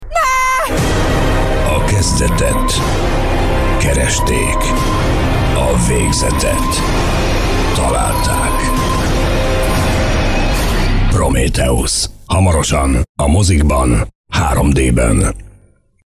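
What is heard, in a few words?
hungarian movie trailers, Hombre; Trailers de películas; hungarian voice actors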